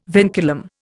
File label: "vinculum", Indian